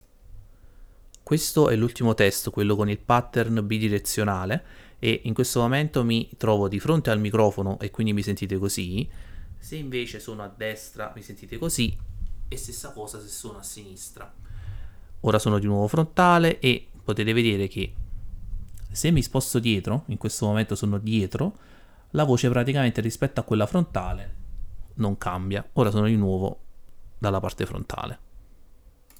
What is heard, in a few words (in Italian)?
Test con pattern bidirezionale